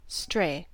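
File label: "stray", US English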